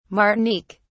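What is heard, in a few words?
"martinique", British English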